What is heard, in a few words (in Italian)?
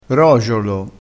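"rogiolo", dialettale, crespinese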